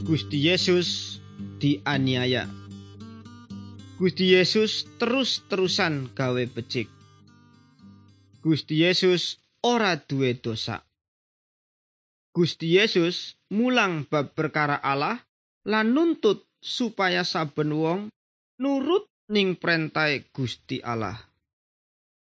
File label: good news jawa indramayu, javanese bible